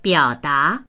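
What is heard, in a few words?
"biao3da2", Chinese; 表达; biǎodá; 表明する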